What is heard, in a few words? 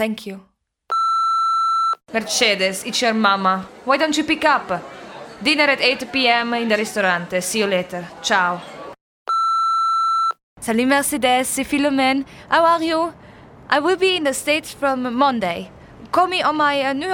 voice over tape